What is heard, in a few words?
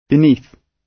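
"beneath", British English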